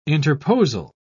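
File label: "interposal", British English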